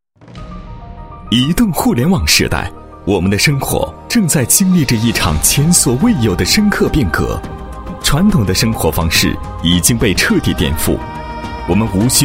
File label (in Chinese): 男 介绍 移动互联网时代 手机App, 配音案例